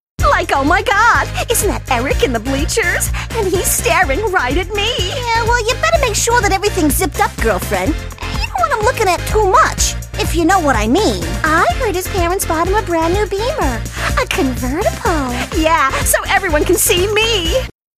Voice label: high school girlies